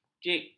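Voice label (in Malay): "jig", Malay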